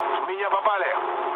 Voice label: aircraft damaged, mood low, speech ru

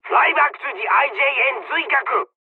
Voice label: evac, dialogs english; War Thunder

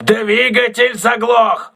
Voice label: engine damaged, crew ships russian

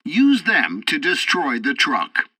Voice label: tutorial fighter ground fight, english; War Thunder

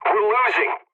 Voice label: pilot tickets lead start enemy, english; War Thunder

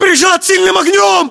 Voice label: inform suppressed generic, battlechatter ru; localized english

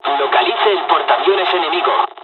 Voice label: bombing, dialogs spanish; midway; War Thunder

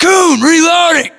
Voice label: inform reloading, US

